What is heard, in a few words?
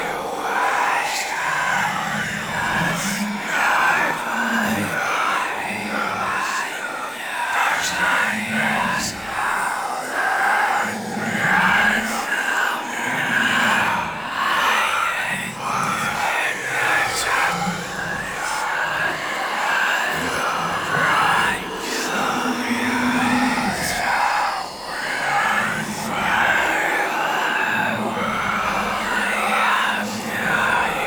Whisper Guide Loop; Warframe; Harrow